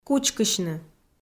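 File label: "кучкысьны", Komi, female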